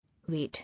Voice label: "Wade", English